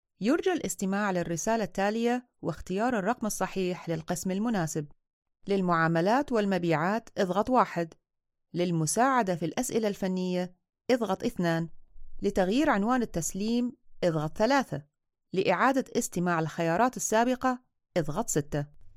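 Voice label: Arabic, Corporate; GoLocalise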